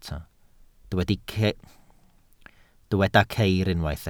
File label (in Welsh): "ceir", Welsh